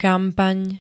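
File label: "kampaň", Slovak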